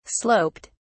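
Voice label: "sloped", British English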